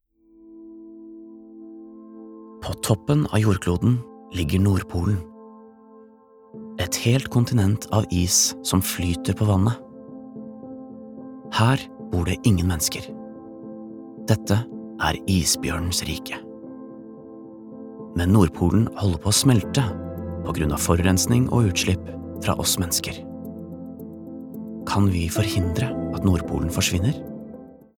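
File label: Norwegian dokumentar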